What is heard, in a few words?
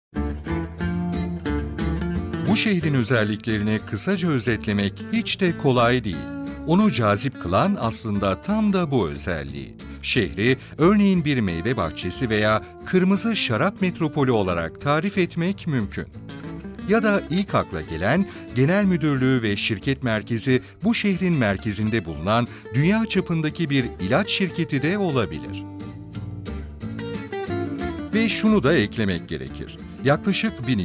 Image Film; Ingelheim